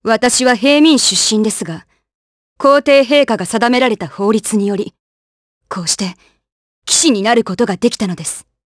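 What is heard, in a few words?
vox dialogue, voices, Japanese; Glenwys; kingsraid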